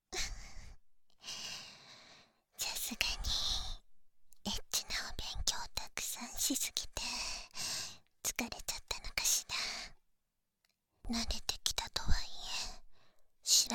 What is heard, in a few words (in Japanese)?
添い寝（囁き重視編集 効果音あり大きめ）